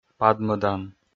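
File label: "падмӧдан", Komi, male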